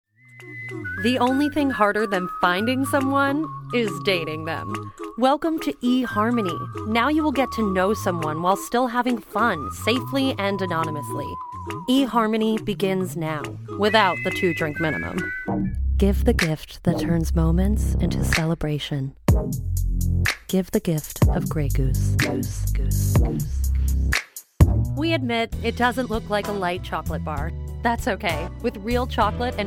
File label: Commercial VO